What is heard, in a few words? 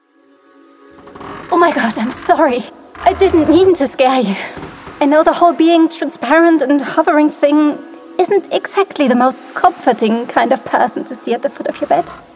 Gaming, voiceover